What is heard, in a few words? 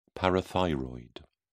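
"parathyroid", British English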